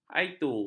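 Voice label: "iaitu", Malay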